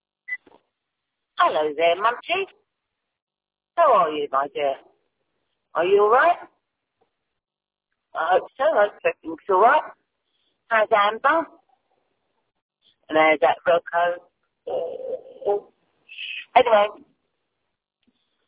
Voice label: voicemail